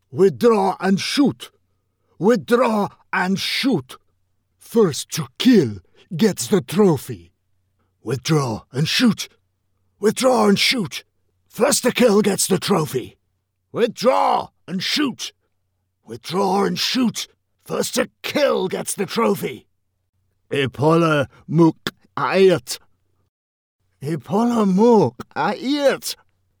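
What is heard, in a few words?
voiceover character game, British character voice over; withdraw and shoot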